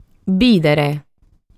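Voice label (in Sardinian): "Bìdere", Sardinian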